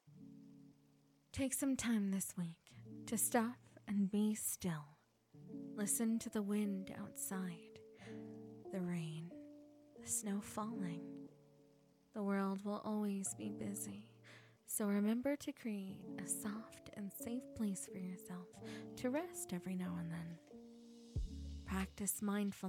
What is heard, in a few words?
Positive Message, asmr; November